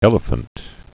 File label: "elephant", English